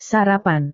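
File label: "sarapan", Indonesian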